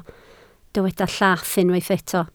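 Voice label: "llath" Welsh, spoken word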